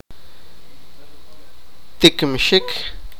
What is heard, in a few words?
sipakapense; push